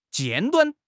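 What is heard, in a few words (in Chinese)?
"尖端", Chinese; HSK6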